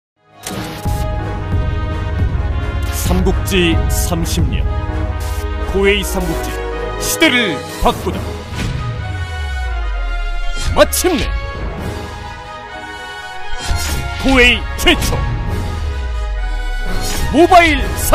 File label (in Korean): 온라인 광고; 삼국지 조조전